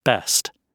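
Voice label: "best", British English; Oxford Audio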